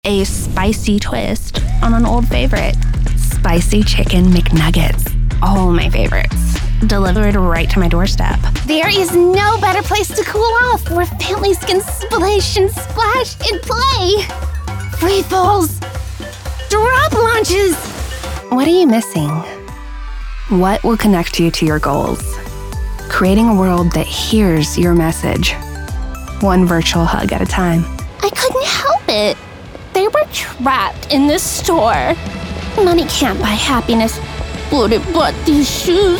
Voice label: Commercial